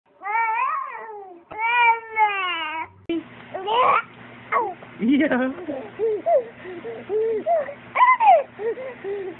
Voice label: Grandson talking